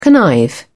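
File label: "connive", British English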